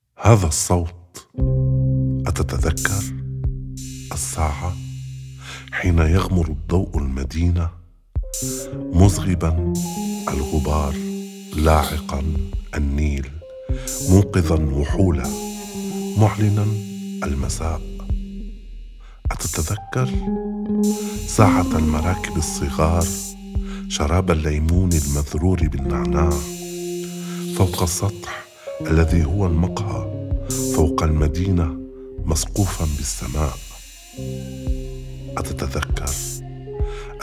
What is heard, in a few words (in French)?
arabe Cette voix